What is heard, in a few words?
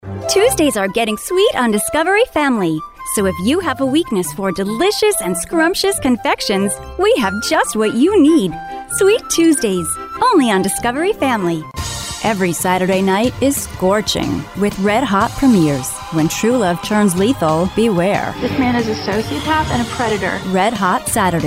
Promo